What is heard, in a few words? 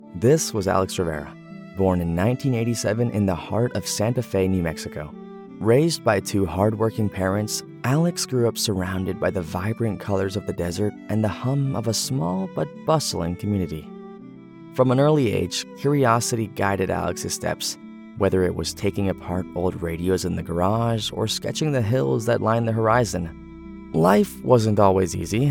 Documentary; Spanish voice over for bilingual and Latin American audiences